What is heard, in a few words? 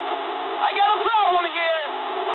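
English aircraft damaged mood med; War Thunder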